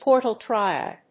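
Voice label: "portal triad", English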